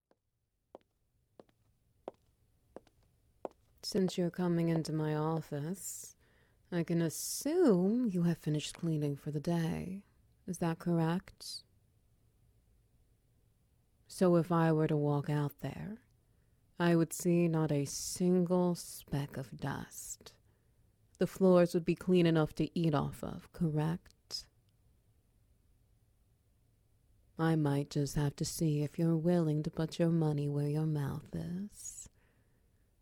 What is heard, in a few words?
SFX Wet Noises; A Puppy Maid's Inspection